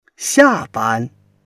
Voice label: "xia4 ban1", Chinese